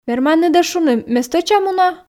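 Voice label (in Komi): верманныд-ӧ шуны ме стӧча муна, Komi, female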